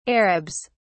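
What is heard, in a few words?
"arabs", British English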